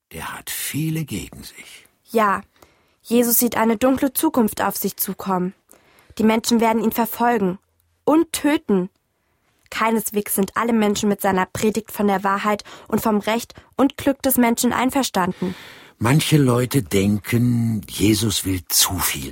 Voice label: Erzaehler

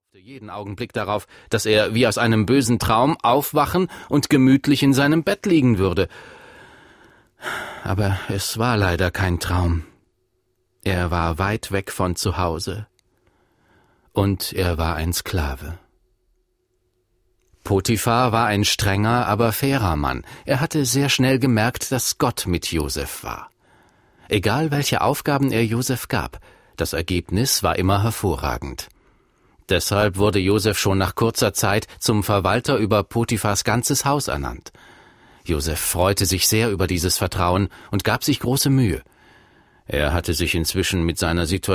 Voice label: Erzaehler

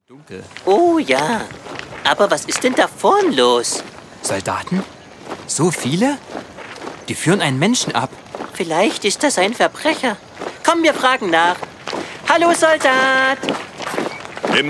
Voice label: Hoerspiel; Der gierige Mann

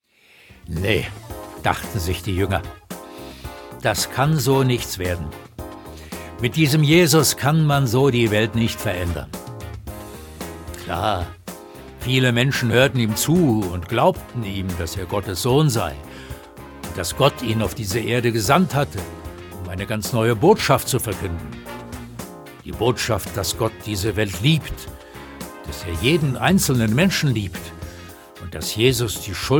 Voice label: Erzaehler

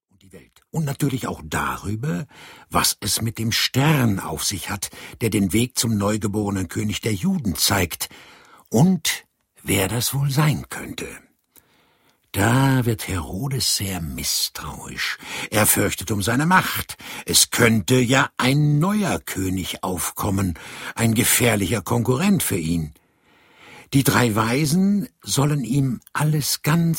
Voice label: Erzaehler

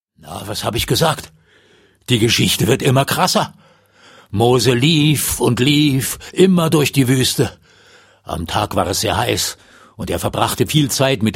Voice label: Erzaehler

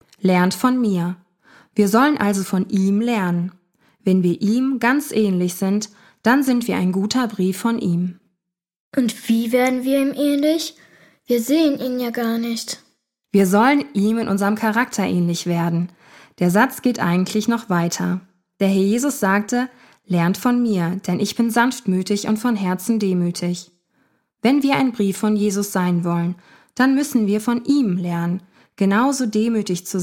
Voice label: Hoerspiel

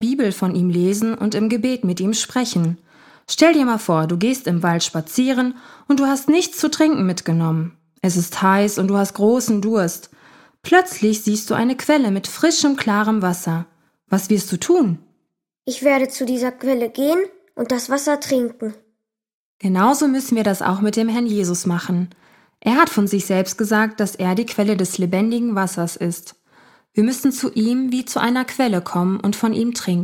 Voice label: Hoerspiel

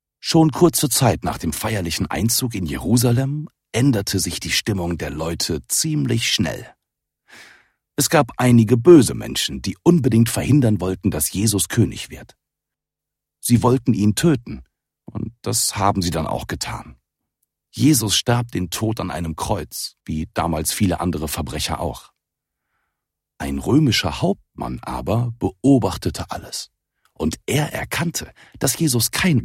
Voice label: Erzaehler; Jesus stirbt

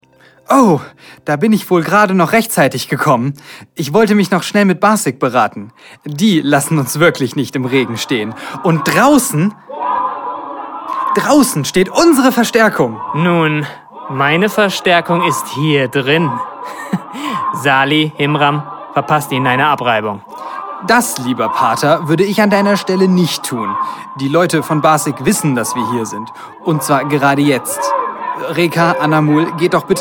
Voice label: Hoerspiel

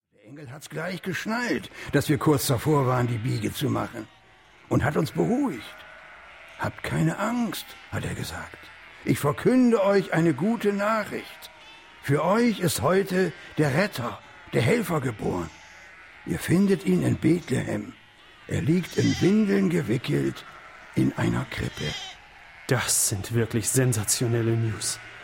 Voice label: Hoerspiel Szene

